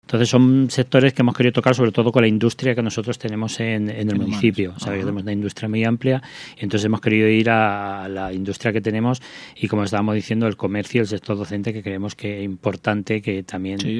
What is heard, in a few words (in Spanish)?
noticias